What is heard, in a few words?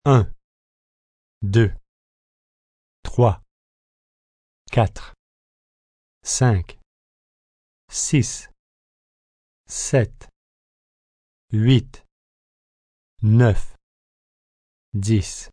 Numbers, male, French, counting from 1 to 10; foreign; (human voice speaking); Talking Sound Effects